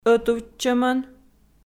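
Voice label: "ӧтувтчӧмӧн", Komi, female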